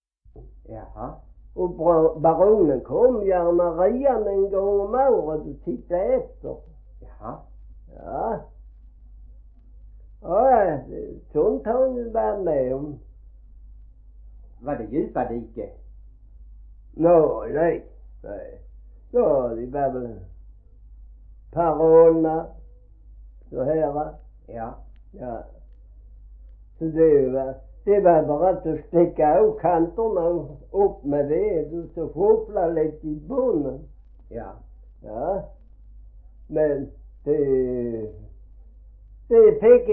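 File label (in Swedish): Gråmanstorp Skåne, Svenska sagor dialekter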